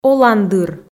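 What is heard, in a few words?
"оландыр", Komi, female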